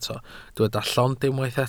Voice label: "llond", Welsh